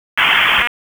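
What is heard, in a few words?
Police Dispatch, p25; Raleigh; Northeast District; wake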